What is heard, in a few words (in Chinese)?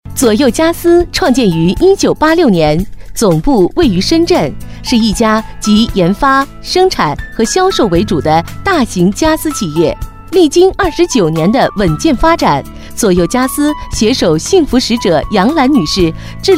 广告; 左右家私